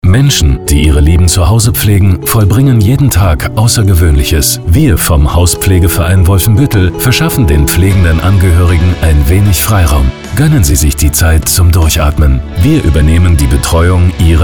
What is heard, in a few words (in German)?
radiospot